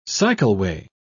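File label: "cycleway", British English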